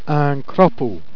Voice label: "crapaud", Jèrriais